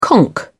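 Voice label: "conk out", British English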